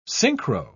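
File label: "synchro", English, British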